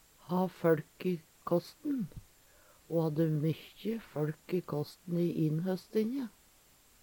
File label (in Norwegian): ha føLk i kåsten, Numedalsmål; Ho hadde mykkje føLk i kåsten i innhøstinje.